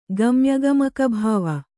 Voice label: "ಗಮ್ಯಗಮಕಭಾವ", Kannada